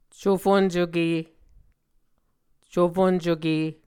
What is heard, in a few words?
"cufoncuke", Muscogee